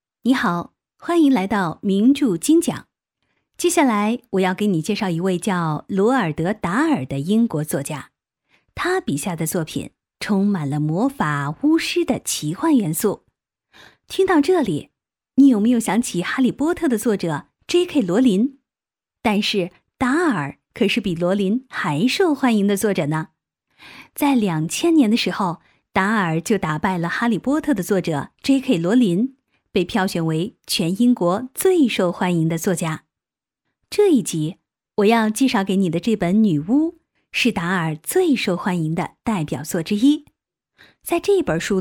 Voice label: 轻松愉快

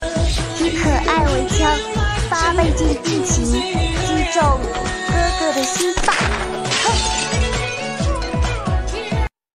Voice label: 团子音以可爱为枪

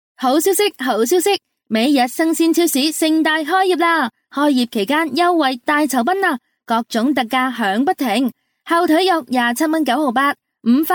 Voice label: 广告促销推销

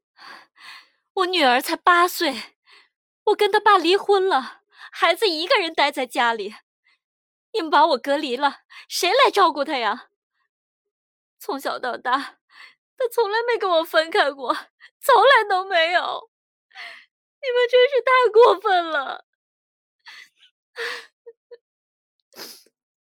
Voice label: 青年母亲

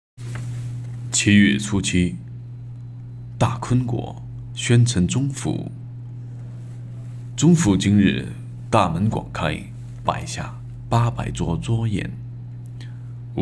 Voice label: 磁性浑厚中年

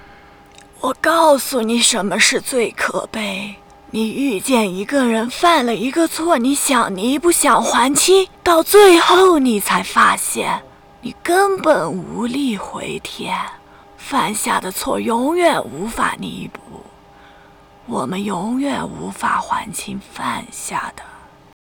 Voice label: 老年音

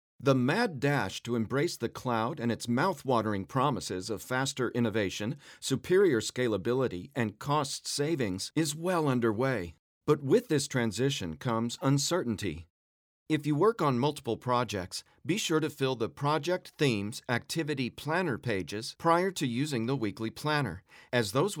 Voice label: 自然干音宣传片

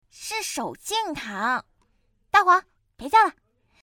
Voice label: 动漫 萝莉

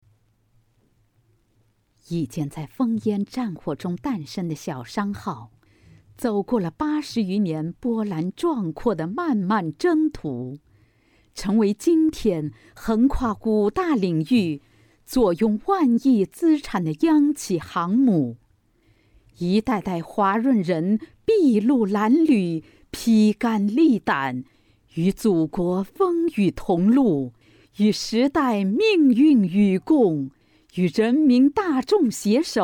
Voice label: 博物馆宣传片; 四阶段考核

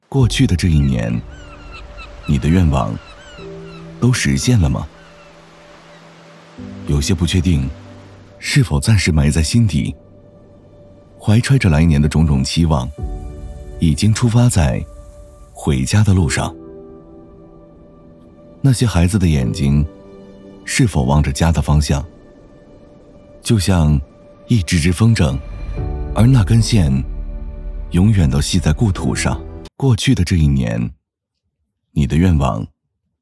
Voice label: 贺岁宣传片旁白; 2024年惠安县贺岁

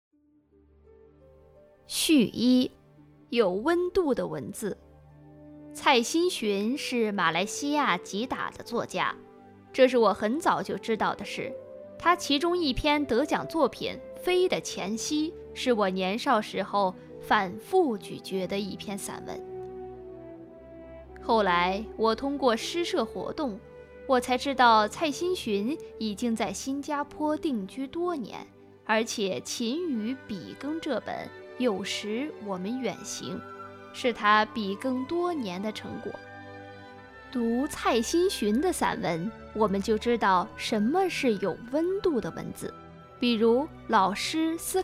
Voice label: 文学作品演播; 繁体竖排

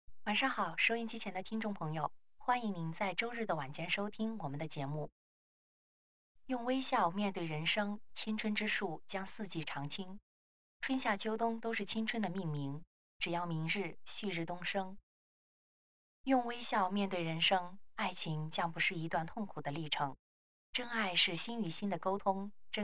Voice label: 情感类样音; 情感网文，散文诗歌朗诵，旁白，电影解说

